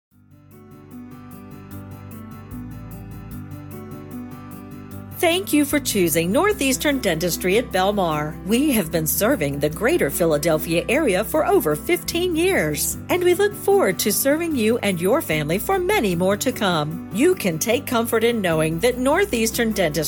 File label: ON HOLD